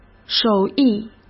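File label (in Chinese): "手艺", Mandarin Chinese; chinese-flashcards; hsk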